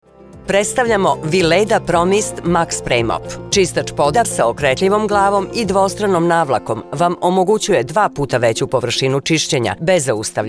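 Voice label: serbian female commercial; voice demos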